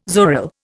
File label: "zoril", Indian